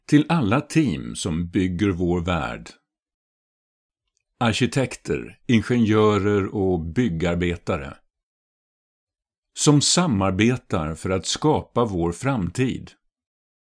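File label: Swedish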